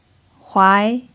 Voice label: "huai2", chinese